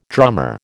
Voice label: "drummer", American